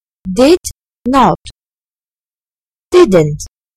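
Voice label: "did not", British English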